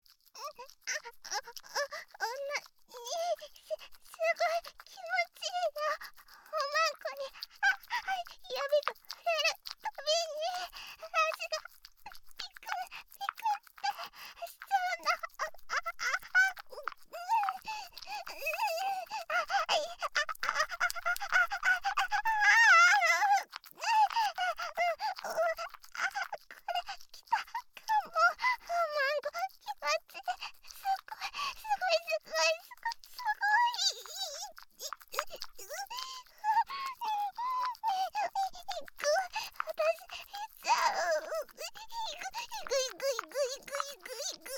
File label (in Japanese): オナニーボイス; ASMR